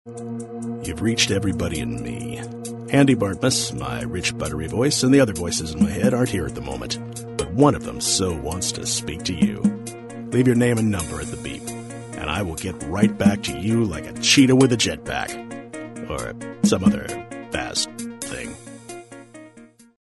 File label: Voicemail; E and ME – Voicemail Greeting; My cell phone Voicemail Greeting